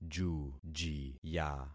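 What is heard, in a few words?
"jujiya", concatenated syllables; Affixing experiment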